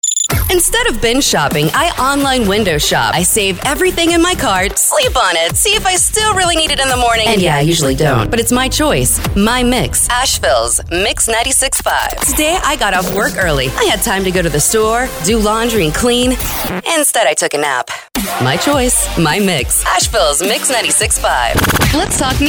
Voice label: Imaging